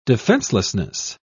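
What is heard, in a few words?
"defencelessness", British English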